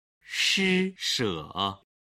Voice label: "shi she", Chinese